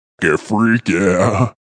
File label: Vocal Deep, Funk vocal loop sample.